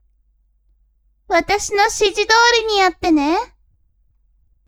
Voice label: woman